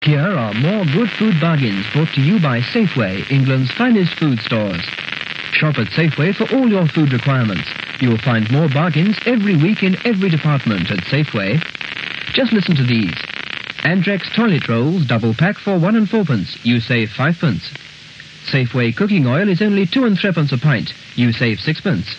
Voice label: Commercial - Supermarkets; R390; Safeway

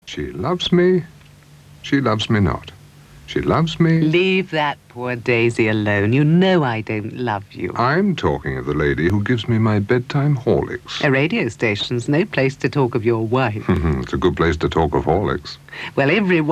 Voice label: advert; Horlicks

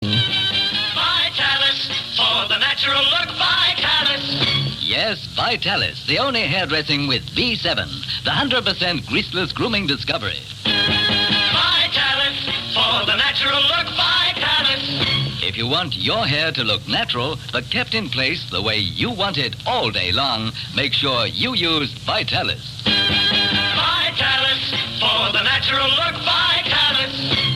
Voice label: advert; Vitalis

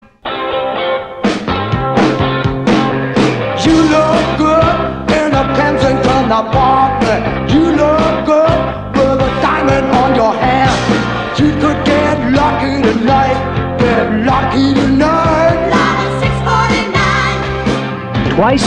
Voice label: commercial; Lotto 649

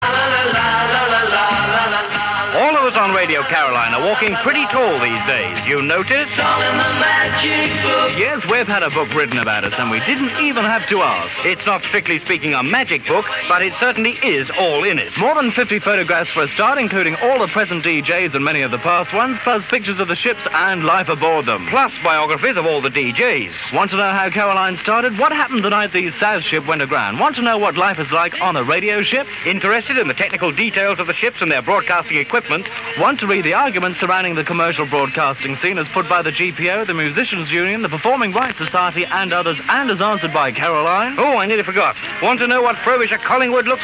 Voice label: advert; Caroline Book

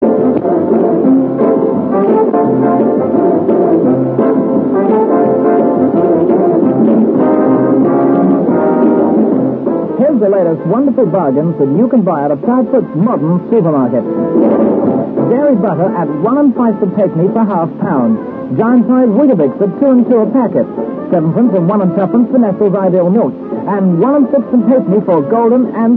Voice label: commercial - Supermarkets; Proudfoot

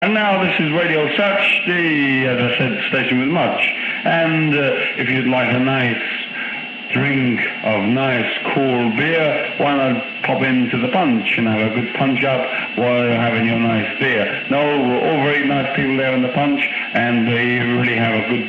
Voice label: Tavern ad; Punch

